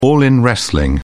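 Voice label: "all in wrestling", English, British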